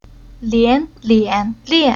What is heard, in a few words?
"Lian", Chinese